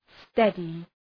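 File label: "steady", English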